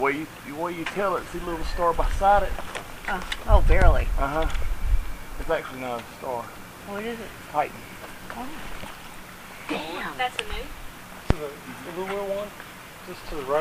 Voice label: on deck end of nite damn helium voice